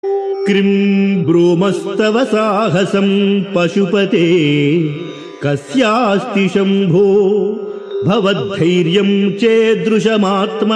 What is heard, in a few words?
Sanskrit; Sri Adi Sankaracharyas Sivananda Lahari; Adi Shankaracharya; श्रीशिवानन्दलहरी ३४